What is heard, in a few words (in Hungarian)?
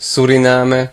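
"suriname", Hungarian; gcompris; geography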